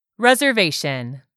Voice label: "RESERVATION", english; 3000 words